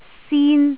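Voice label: "sin1", Cantonese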